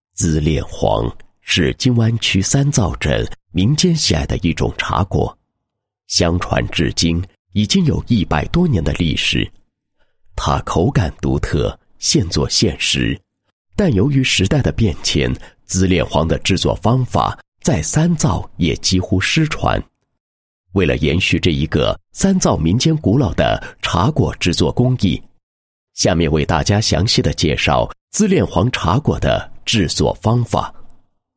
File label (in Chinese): 男-解说; 马恋王茶果的制作